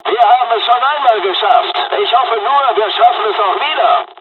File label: dialog, dialogs german; War Thunder; midway